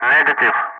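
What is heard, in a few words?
"negative", English; Ka-50; Wingman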